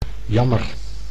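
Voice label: "jammer", Dutch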